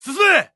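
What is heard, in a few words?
Japanese Neutral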